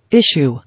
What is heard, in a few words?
"Issue", English